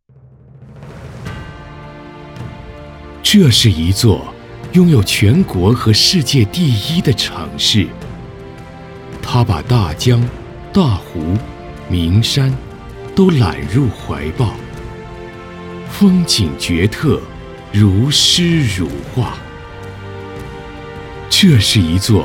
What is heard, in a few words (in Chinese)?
英男 史诗 壮阔; 九江